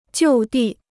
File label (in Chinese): "就地", Chinese